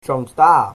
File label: good luck, Cornish